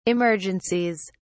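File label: "emergencies", British English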